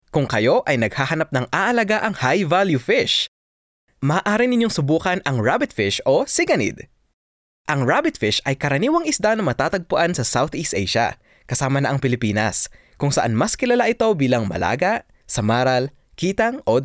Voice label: Tagalog M Radio